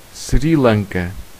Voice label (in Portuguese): sri lanka, pt; gcompris; geography